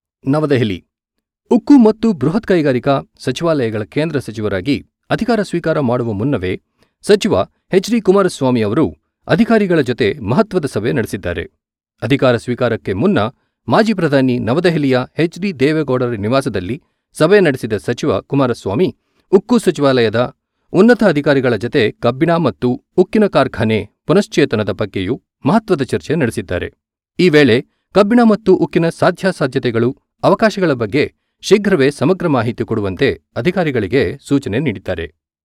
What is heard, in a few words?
Kannada news